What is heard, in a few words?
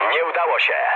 bomb failure mood low, speech pol; War Thunder